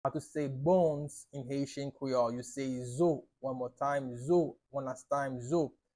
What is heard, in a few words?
How to say Bones in Haitian Creole Zo pronunciation by a native Haitian Creole teacher